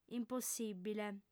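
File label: "impossìbile", female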